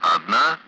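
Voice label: Speech, Russian; Allied Flight; Digits; DCS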